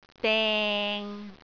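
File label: "deng6", Cantonese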